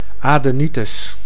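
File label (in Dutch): "adenitis", Dutch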